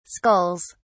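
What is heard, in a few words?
"skulls", British English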